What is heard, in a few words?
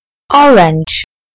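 "orange", British English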